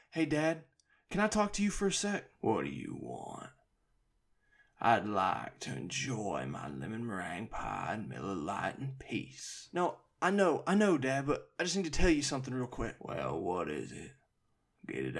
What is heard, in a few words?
VO Southern